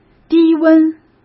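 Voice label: "低温", Mandarin Chinese; chinese-flashcards; hsk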